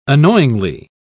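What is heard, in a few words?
"annoyingly", British English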